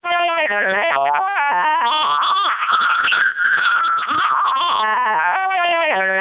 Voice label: siren melp, speechsamples